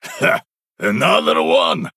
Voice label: Male Draenei Attack